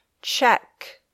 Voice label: "check", English